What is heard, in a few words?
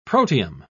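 "protium", British English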